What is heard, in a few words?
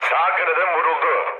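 Turkish right wing, mood low, speech; War Thunder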